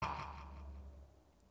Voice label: failure, announcer; tf2cciv; open fortress